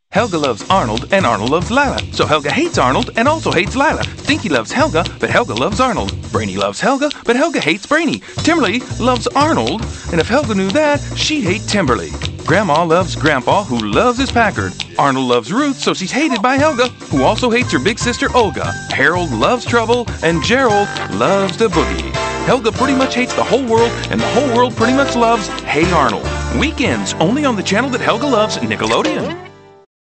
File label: US English, English US Male; Hey Arnold